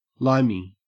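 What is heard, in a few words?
"limey", Australian English; pronunciations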